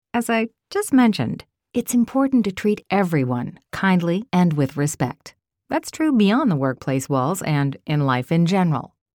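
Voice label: Voice Over Artist conversational corporate elearning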